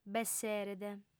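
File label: "b'esseret", female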